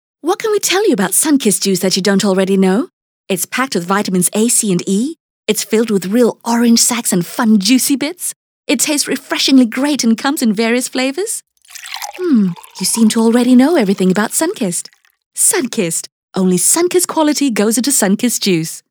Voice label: Eng; Sunkist